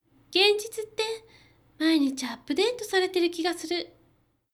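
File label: female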